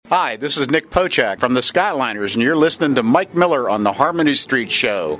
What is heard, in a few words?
promo